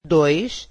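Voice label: "doish" Portuguese female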